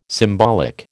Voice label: "symbolic", American